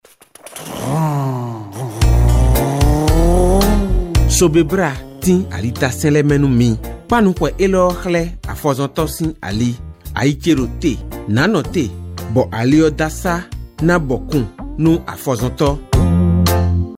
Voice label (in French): CODE DE ROUTE FON